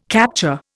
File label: "capture", Indian